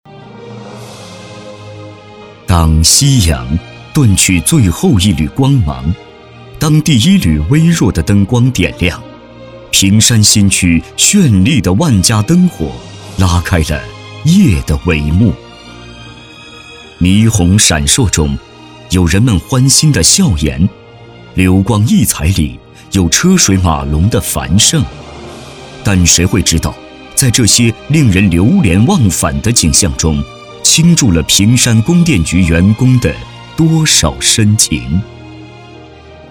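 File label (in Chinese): 国语 男声 广告 大气浑厚磁性; 房地产广告-沉稳抒情; 科技感; 积极向上; 万家灯火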